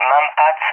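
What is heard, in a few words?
"MANPADS", English, Spain; AirDefence; DCS; Allied Flight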